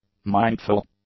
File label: "mindful", English; adjectives